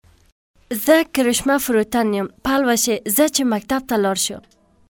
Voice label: Pashto Female